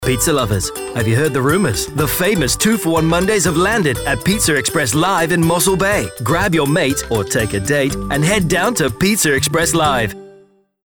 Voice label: english british promos